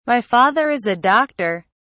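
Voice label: "my father is a doctor", British English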